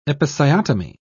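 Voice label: "episiotomy", British English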